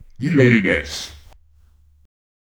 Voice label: a man with a deep, deep voice

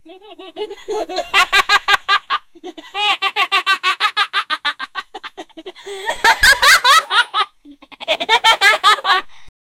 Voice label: 9 year old african, family

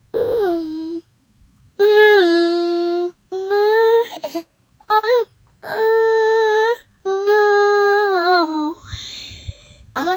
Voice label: asian girl my name is; affectionate

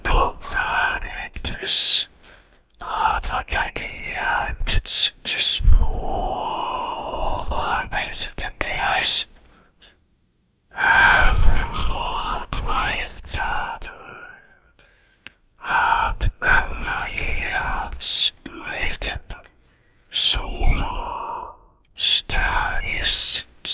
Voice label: male whisper illegible; ancient language

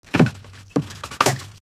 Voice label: flight attendant saying

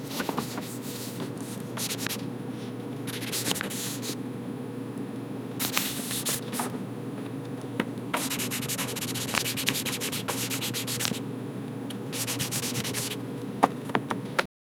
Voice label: the soft whispering of, library

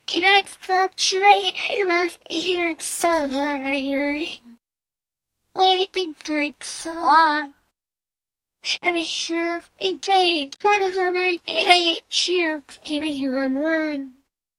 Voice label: the girl said what are

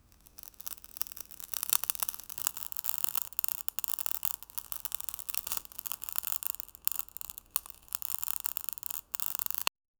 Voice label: german, language

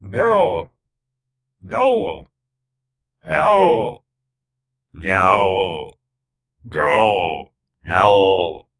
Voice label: oh no concerned male voic

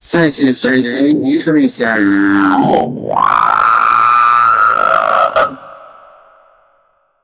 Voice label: voice model ai assistant

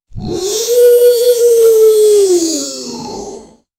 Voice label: man saying "I felt", narration

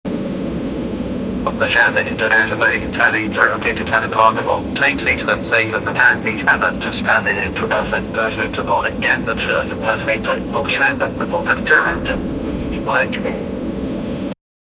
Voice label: an aiplane captain announ, announcement

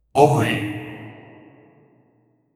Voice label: generate a warm echoed ma, authoritative

